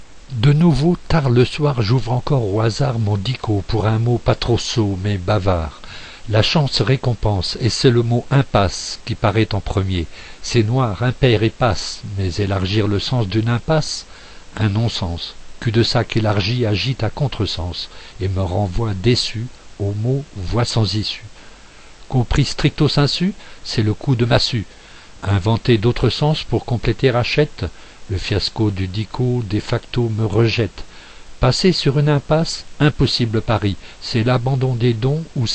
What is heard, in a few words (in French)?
Voice Slam; Rien qu un jeu